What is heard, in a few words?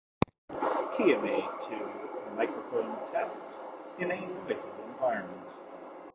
TMA-2 MOVE Wireless Noisy